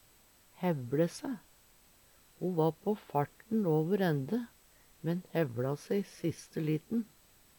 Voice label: hevLe se, Numedalsmål

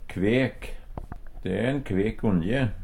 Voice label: kvek, Numedalsmål; Adjektiv

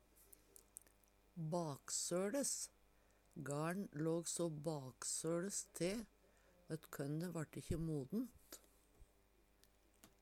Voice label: baksoles, Numedalsmål

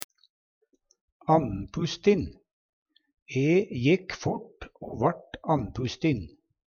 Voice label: "annpustin", Numedalsmål

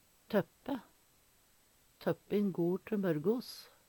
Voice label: "tøppe", Numedalsmål; Substantiv hankjønn

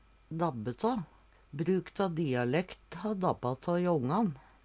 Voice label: "dabbe tå", Numedalsmål

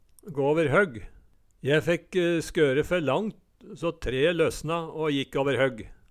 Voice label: "gå åver høgg", Numedalsmål

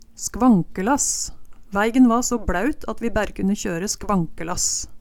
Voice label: "skvankelass", Numedalsmål

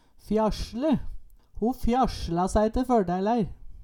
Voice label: fjasle, Numedalsmål; Ho fjasla sæi te førdeilær.

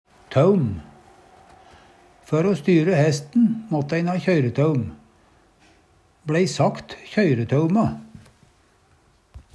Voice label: taum, Numedalsmål; Før o styre hæsten måtte æin ha kjøyretaum. BLei sagt (kjøyre)tauma.

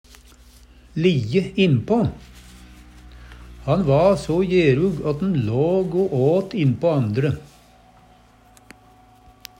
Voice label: lijje innpa, Numedalsmål; Uttrykk

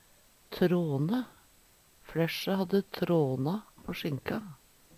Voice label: "tråne", Numedalsmål; Hushald, mat, drikke

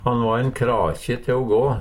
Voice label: "krakje", Numedalsmål

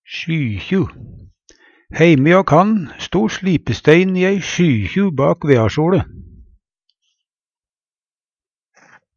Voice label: "sjykju", Numedalsmål

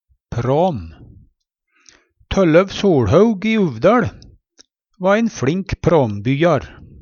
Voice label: pram, Numedalsmål; Substantiv hankjønn; Handverk (metall, tre, lær); Jakt, fangst, fiske

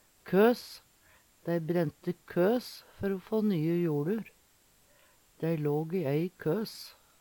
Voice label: "køs", Numedalsmål; Substantiv hokjønn; Planteriket

